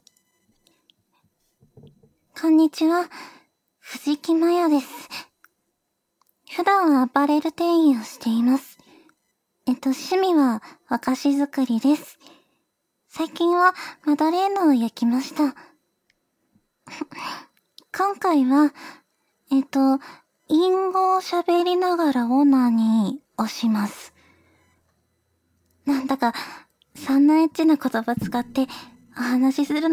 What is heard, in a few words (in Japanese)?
自己紹介, ASMR; ダウナー系ロリ女子とド変態疑似SEXはいかが?; 幼い声